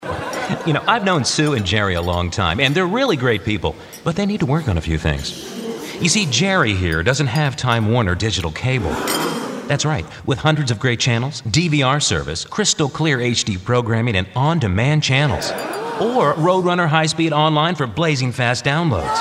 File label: character